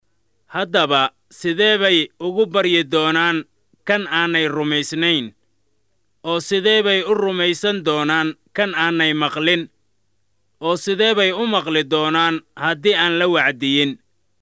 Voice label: Somali